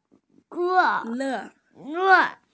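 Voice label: "le4", Mandarin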